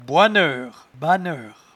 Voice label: "boinnheur", patois jura